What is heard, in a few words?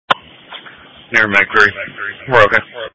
EMS Dispatch